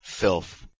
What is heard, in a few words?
"felth", Proto Germanic